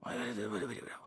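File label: whisper; reaperman; giants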